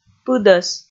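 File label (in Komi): "пыдӧс", Komi, female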